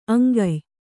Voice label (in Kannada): "ಅಂಕ್ಯ", Kannada